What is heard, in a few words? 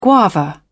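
"guava", British English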